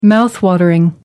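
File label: "mouth-watering", British English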